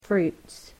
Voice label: "fruits", English